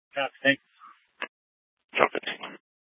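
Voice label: Troop 2A Dispatch, scanner audio recording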